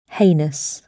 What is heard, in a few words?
"heinous", English